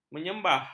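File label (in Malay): "menyembah", Malay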